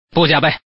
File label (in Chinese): bujiabei, man